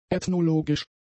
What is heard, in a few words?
"ethnologisch", German; adjectives